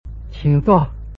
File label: "qingzuo", Chinese